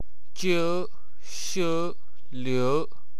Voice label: "iou", wu chinese